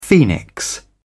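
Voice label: "phoenix", British English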